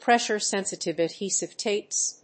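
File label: "pressure sensitive adhesive tapes", English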